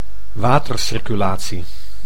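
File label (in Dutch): "watercirculatie", Dutch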